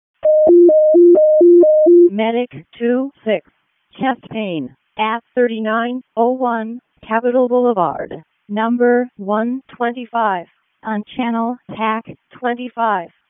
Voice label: WC EMS ALERT, p25; wake

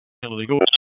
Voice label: Raleigh Police Northeast District Tac, p25; wake

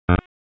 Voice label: FIRE OPS, p25; wake

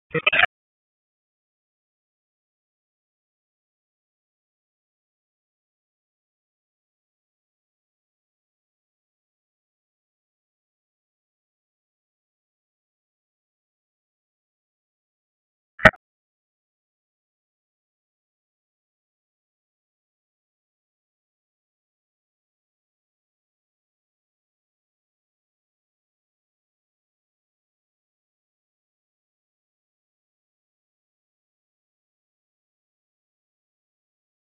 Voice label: Raleigh Police Northeast District Tac, p25; wake

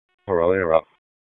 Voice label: Police North District Disp, p25; wake p25

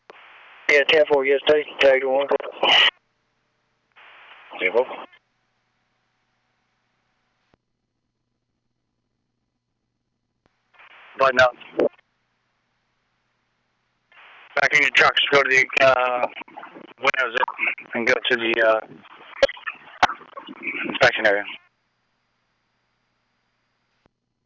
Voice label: P25 DOT Wake, recordings

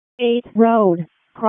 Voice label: RFD ALERT, p25; wake

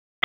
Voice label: Raleigh Police Northeast District Tac, p25

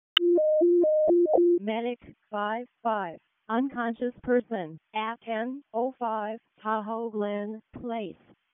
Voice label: EMS ALERT, p25

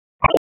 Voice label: Police Northwest District Tac 1; Raleigh; p25; wake

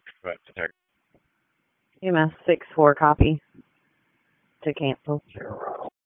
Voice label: PS TAC, p25

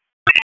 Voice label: Police Tac; Raleigh; Northwest District; wake

